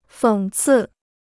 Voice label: "讽刺", Chinese